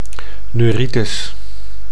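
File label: "neuritis", Dutch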